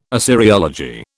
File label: "Assyriology", British